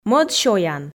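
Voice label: "мӧд сёян", Komi, female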